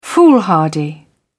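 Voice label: "foolhardy", British English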